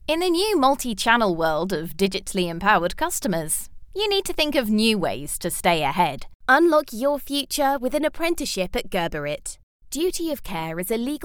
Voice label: English British corporate videos

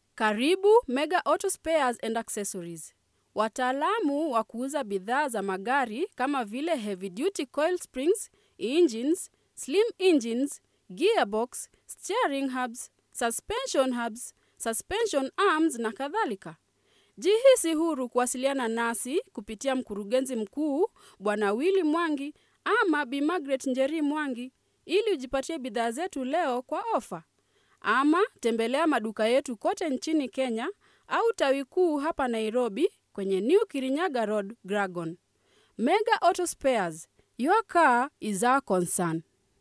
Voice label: swahili commercial

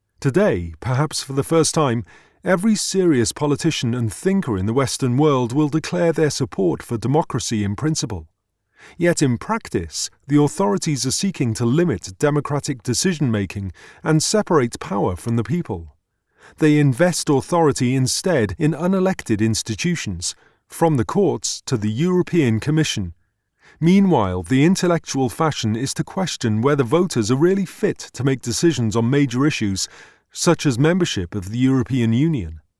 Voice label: english british political ads

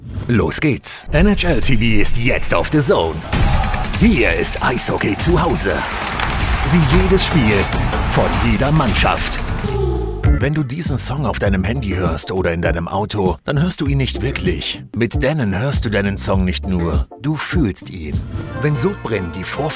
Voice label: german commercial, characterful

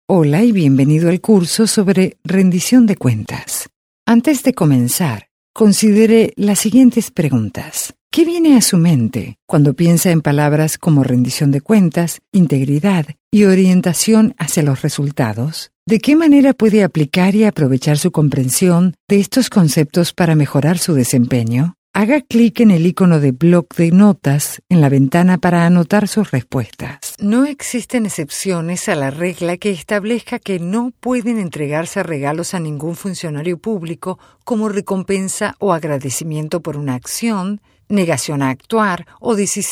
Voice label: spanish latin american e-learning

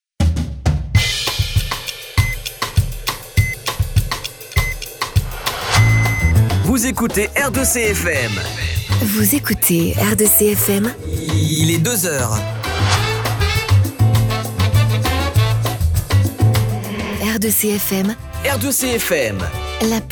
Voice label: French commercial

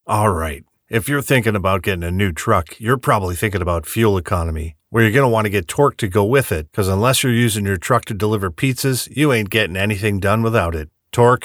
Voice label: english canadian automotive

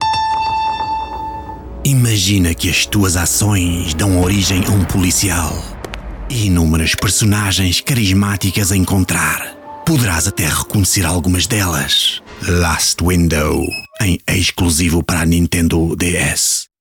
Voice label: Portuguese video games

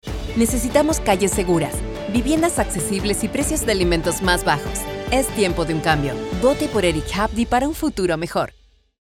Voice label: spanish latin american political ads; Buenos Aires, Argentina; Anúncios políticos

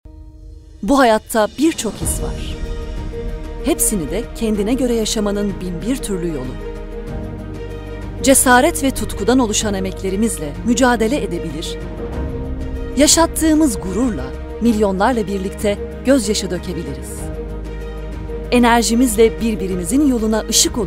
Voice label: Turkish, turkish voice actors; Andere

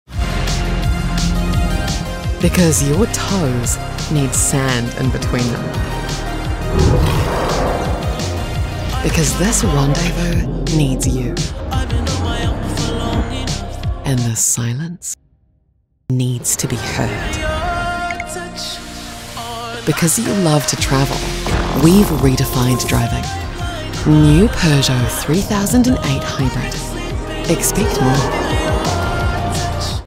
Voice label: english new zealand automotive; voice actor

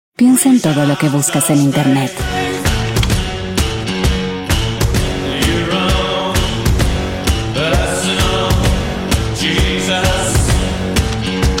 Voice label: Spanish Latin American automotive

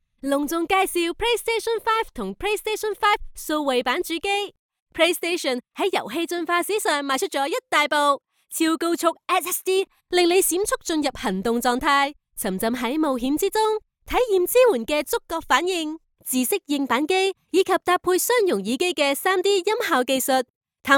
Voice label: Chinese Cantonese video games

